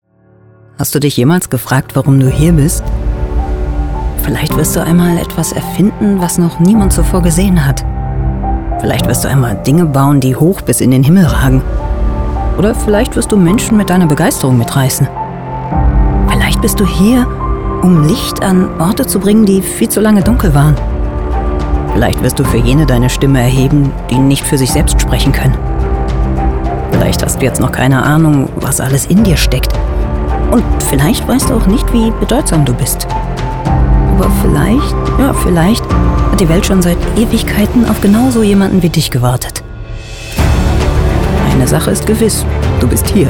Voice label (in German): Werbung storytelling; human life trust